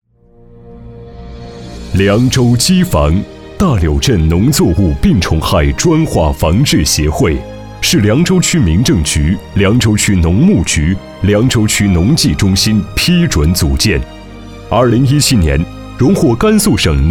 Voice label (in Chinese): 专题 沉稳 企业